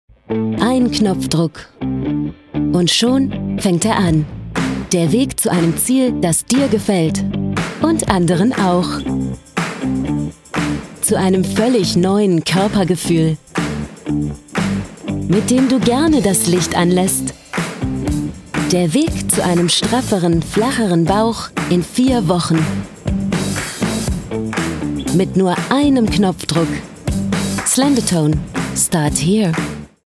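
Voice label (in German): Werbung Neutral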